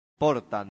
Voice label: "portant", Latin; santillana

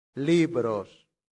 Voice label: "libros", latin; santillana

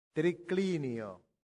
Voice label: "triclinio", latin; santillana

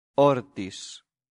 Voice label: "hortis", latin; santillana

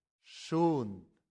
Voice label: "sunt", latin; santillana

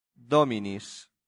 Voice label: "dominis", latin; santillana

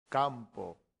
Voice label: "campo", Latin; santillana

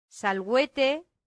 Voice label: "salvete", latin; santillana

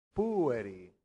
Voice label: "pueri", latin; santillana

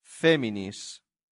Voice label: "feminis", Latin; santillana

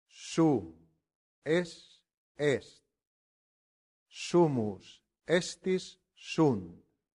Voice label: "sum", latin; santillana

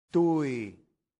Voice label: "tui", Latin; santillana

